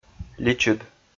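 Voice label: "личыд", Komi, male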